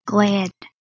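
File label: "ghleh2dh", Proto-Indo-European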